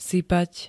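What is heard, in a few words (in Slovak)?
"sypať", Slovak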